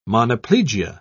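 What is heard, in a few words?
"monoplegia", British English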